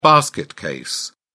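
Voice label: "basket case", British English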